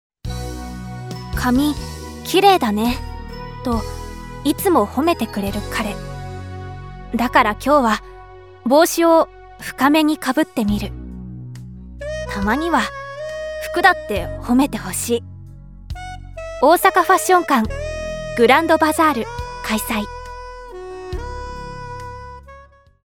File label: Sample shopping plaza cm